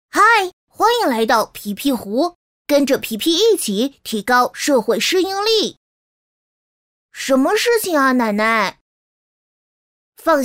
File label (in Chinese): 女 男童 音色